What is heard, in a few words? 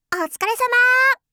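"otsukaresamaa", Japanese; aisatsu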